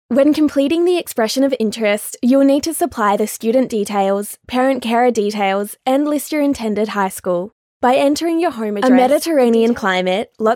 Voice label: Narration